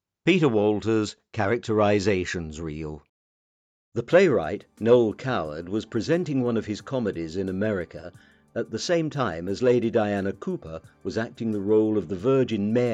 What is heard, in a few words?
Characterisations